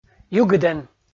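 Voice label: "югыдӧн", Komi, male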